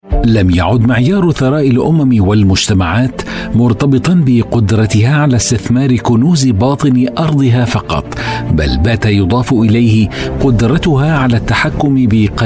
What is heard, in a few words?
arabic voice over male narrator